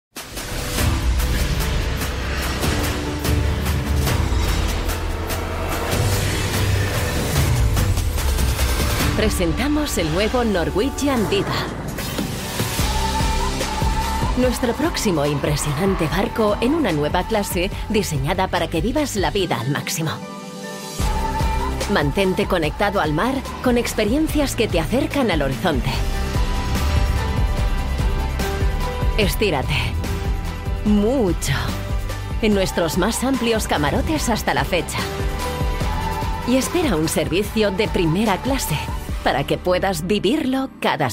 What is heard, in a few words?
spanish spot; norwegian